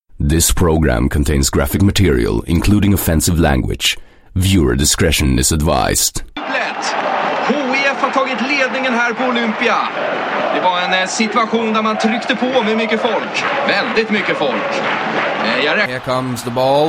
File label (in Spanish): locutor ingles sueco